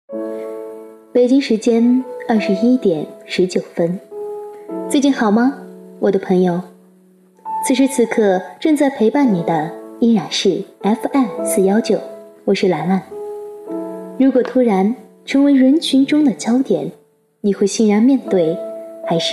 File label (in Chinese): 电台音